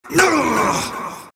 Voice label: monkey crown pain; dota; monkey king